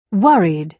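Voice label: "worried", British English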